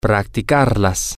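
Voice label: to Practice It feminine plural, Spanish, male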